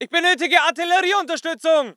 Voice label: voice ger infantry

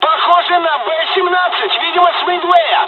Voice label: dialog, dialogs russian; War Thunder

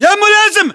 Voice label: rank lieutenant, localized english battlechatter

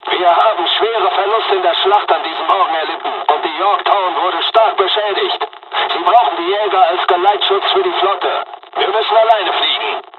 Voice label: dialog, dialogs german; War Thunder; midway

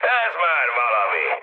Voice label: trick, speech hun; War Thunder

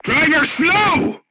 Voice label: voice message drive slowly, crew tanks portuguese

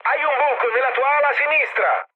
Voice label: left wing mood med, speech it; War Thunder

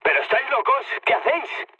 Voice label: player killed ally, mood low, speech; War Thunder

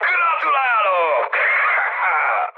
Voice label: player killed enemy, mood high, speech hun; War Thunder

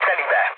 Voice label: bomb success, mood med, speech hun; War Thunder

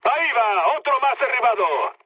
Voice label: player killed enemy, mood low, speech; War Thunder

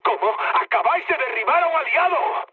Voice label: player killed ally, mood high, speech; War Thunder

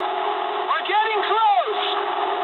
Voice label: eng near waypoint mood low, speech eng; War Thunder

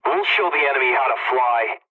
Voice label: pilot mission start, english; War Thunder

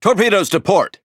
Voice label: En Speech Alarms Torpedo Left; World Of Warships

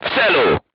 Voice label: voice message direct hit, crew tanks polish

